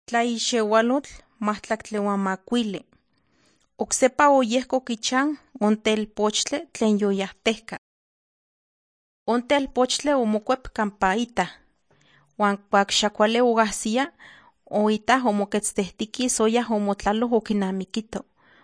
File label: nahuatl guerrero tlapa; the bible league nt drama